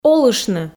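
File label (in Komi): "олыштны", Komi, female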